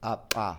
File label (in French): clic bilabial sourd